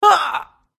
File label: human female wound